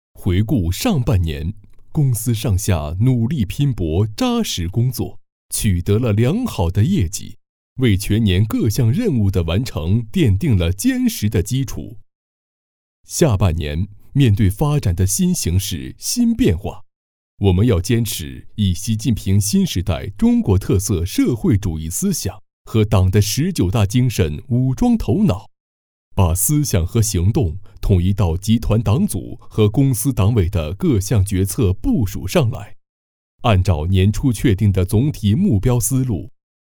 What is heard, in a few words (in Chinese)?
男 宣传片; 重庆联通